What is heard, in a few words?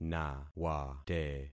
"nawate", concat syllables; Affixing experiment